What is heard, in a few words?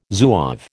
"Zouave", American